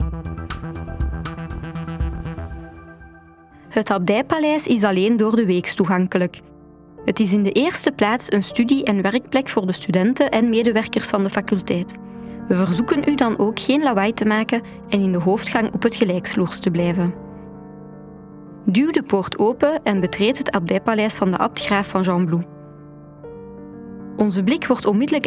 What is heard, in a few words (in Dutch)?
NL Audioguide; Gembloux; Palais Abbatial